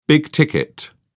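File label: "big-ticket", English, British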